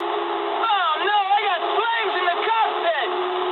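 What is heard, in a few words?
English on fire mood high; War Thunder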